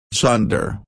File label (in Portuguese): "chunder", nova zelandia; girias